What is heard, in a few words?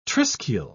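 "triskele", British English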